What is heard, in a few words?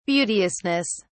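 "beauteousness", British English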